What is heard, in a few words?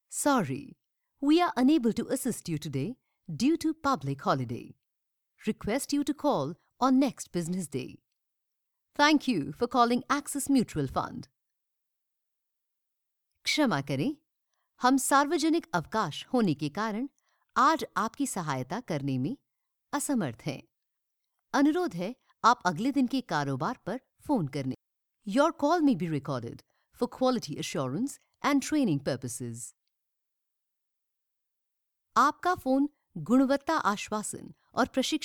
English Hindi IVR